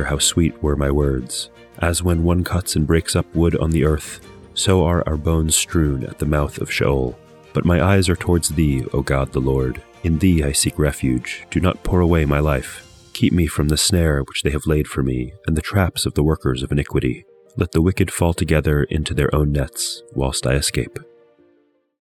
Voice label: poetry and prayer psalm english